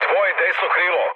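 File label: Serbian right wing mood low, speech; War Thunder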